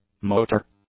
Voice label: "motor", English; adjectives